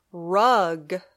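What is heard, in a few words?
"rug", English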